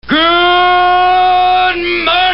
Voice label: Good Morning Shout